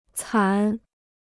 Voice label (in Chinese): "惨", Chinese